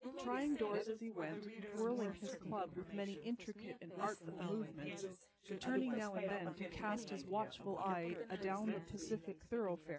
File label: female4 inst sim